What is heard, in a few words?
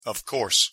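"of course", English pronunciation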